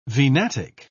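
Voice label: "venatic", British English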